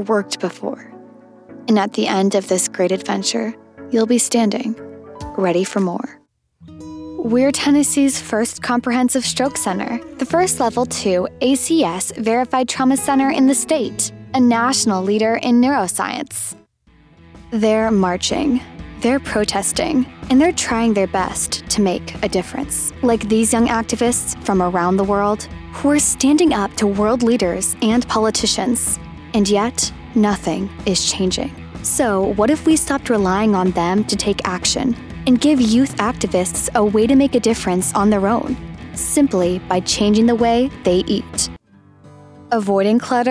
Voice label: Teen Commercial